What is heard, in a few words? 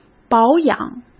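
"保养", Mandarin Chinese; chinese-flashcards; hsk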